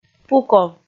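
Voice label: "пуков", Komi, female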